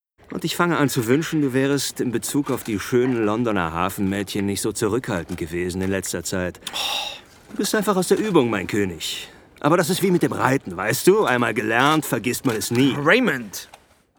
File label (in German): Hoerspiel; Hoerspiel XBerg; Harry